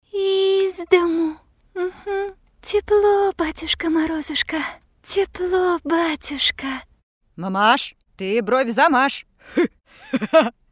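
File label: fairy tales Russian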